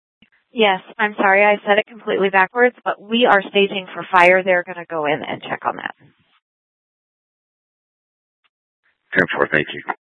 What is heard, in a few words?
Dispatch; Scottsdale